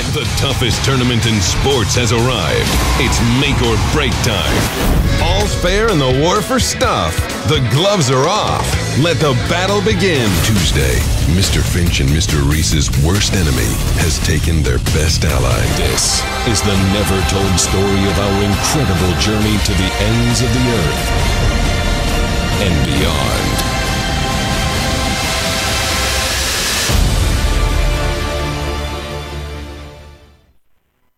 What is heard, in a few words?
american voice; Voix Homme